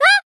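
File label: Voice damaged Japanese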